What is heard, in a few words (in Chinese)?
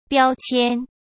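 "标签", Mandarin Chinese; hsk; chinese-flashcards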